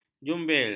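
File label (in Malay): "jumbil", Malay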